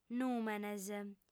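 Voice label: "nùmenes", Sardinian, female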